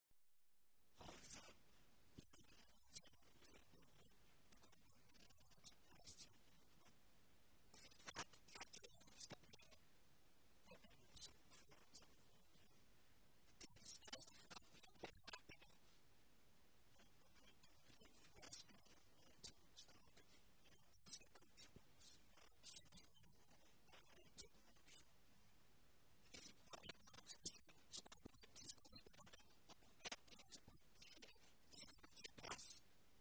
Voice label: male danish character compilation